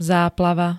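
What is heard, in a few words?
"záplava", Slovak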